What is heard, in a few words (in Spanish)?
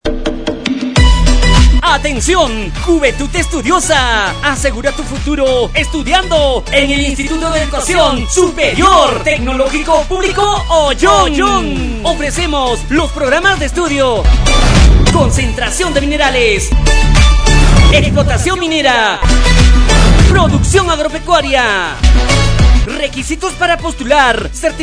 SPOT ADMISION; ADMISION 2026